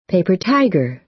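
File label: "paper tiger", American English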